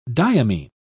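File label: "diamine", British English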